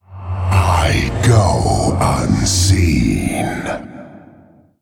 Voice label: Male Shade Attack